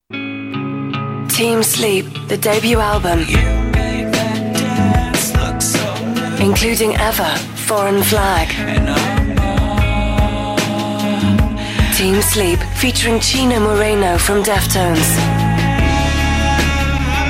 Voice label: sensual